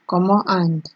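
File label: "comot hand", Nigerian Pidgin, female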